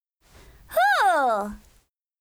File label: FEMALE Emotion